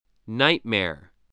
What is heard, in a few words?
"nightmare", voice